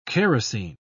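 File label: "kerosine", British English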